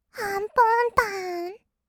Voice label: "あんぽんたーん", kawaii voice; ASMR; 色々なワンショット

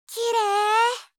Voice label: 「綺麗～」, 相づち・反応系【ポジティブ】

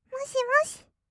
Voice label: "もしもし", kawaii voice; 挨拶

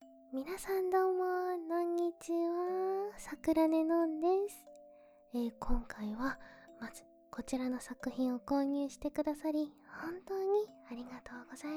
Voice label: まったりフリートーク; ASMR

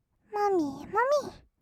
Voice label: "もみもみ", kawaii voice; ASMR; 色々なワンショット

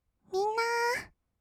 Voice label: "みんなー", kawaii voice; ASMR; 二人称; 1200 kawaii voice!!

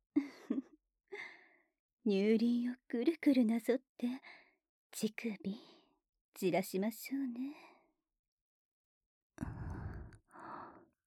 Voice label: クルクル ふつう, 低音お姉さん ループ音声; 乳首オナ指示でカリカリタイム

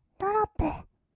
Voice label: "ドロップ", kawaii voice; ASMR; 1200 kawaii voice!!; 音ゲー

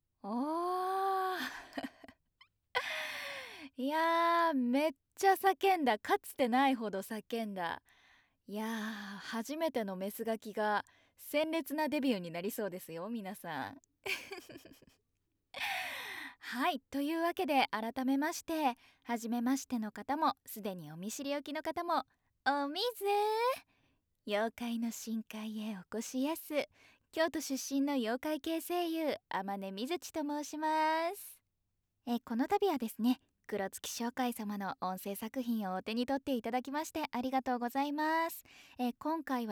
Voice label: フリートーク

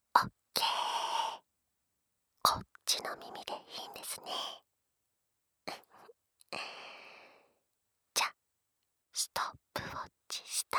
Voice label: 左 カウントダウン あり, ASMR

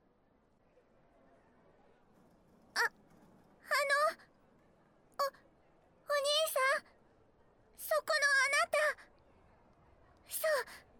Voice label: 囁き; エッチにも人一倍…興味があります…

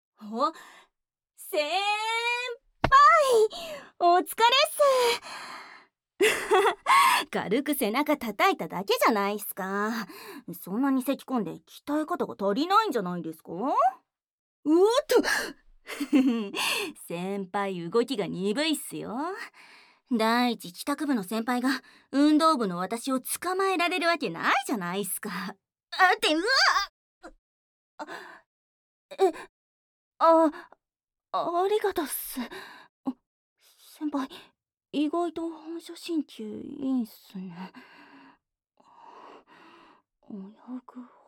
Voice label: ボーイッシュな後輩 デレ, ASMR

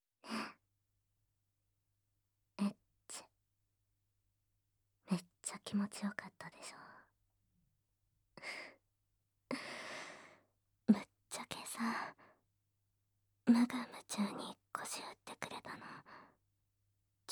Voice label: 裸同士で抱き合ってピロートーク

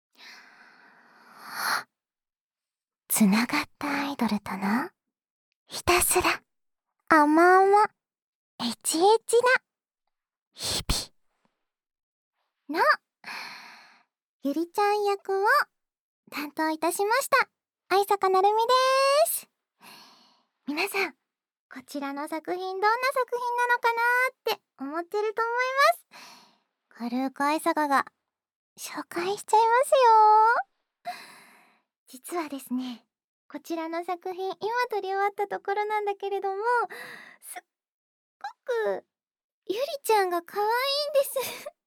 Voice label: フリートーク; 繋がったアイドルとひたすらあまあまえちえちな日々

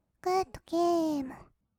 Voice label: "グッドゲーム", kawaii voice; ASMR; 色々なワンショット; 1200 kawaii voice!!

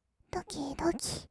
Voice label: "ドキドキ", kawaii voice; ASMR; 色々なワンショット; 1200 kawaii voice!!

